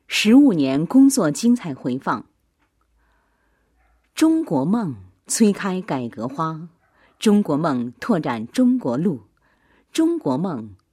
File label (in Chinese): 女 解说词; 2015年工作精彩回放